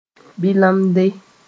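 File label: "bɩlemde", Mooré; naked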